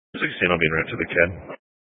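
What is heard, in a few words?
Sheriff Dispatch, Scanner audio recording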